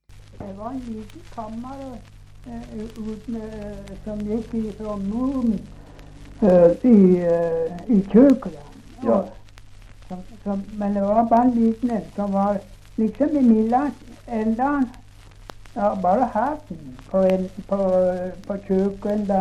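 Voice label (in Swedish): Ovansjö Gästrikland, dialekter, svenska sagor; Folkminnen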